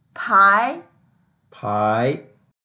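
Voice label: "pai2", Mandarin Chinese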